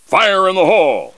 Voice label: male grenade; combatarms2